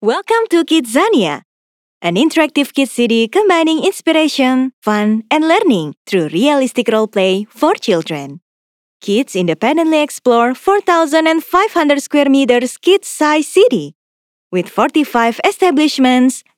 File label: ENGLISH CASUAL